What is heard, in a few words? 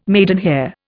"maidenhair", Indian